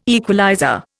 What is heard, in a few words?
"equalizer", Indian